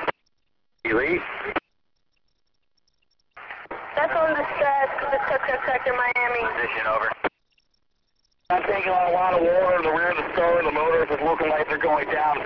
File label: Mayday Radio Call